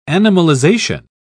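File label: "animalization", British English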